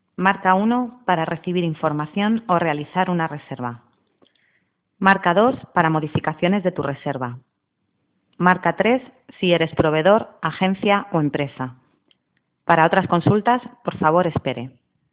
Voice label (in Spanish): locucion centralita